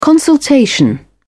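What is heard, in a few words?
"consultation", British English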